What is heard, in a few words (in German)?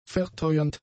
"verteuernd", German; adjectives